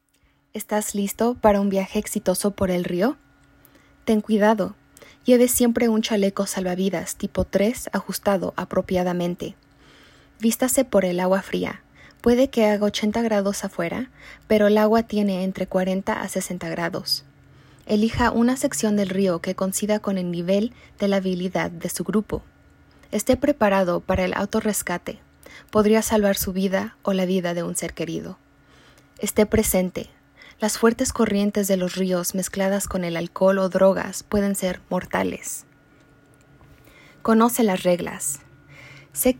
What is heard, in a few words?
Spanish Recording; Kiosk